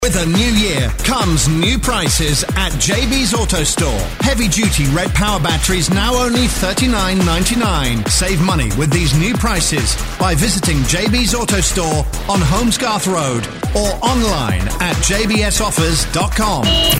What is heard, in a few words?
hard sell